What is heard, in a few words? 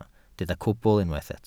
"cwbl", welsh